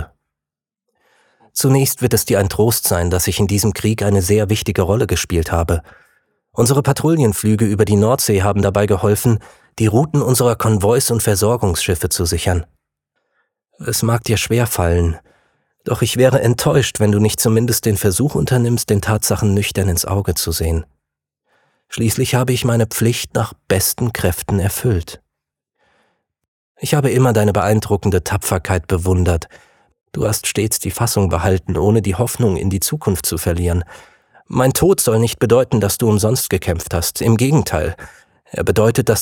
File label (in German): brief an seine mutter kurzversion, sprecher